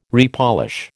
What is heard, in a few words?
"repolish", American